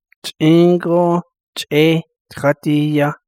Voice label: navajo adverb; I went everywhere looking for something but with

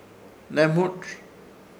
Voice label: "Hnemũrz" hombre un ser maligno, Ishir Chamacoco

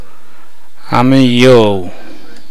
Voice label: urine, Namolukese

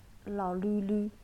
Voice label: naxi finger

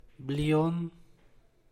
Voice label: "blion", San Mateo Macuilxochitl Zapotec; fence (on the street); cerca (de la calle)

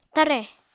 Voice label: "tare", apatani

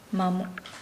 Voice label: Nukuoro; fish

